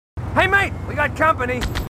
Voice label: marines, aussie; h2dialogue